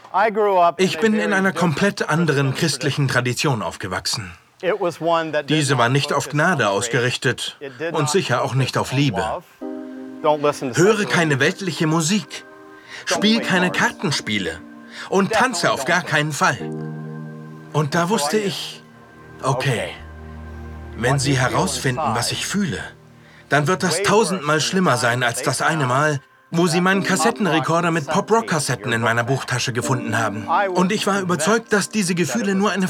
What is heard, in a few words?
Voiceover; Queer Eye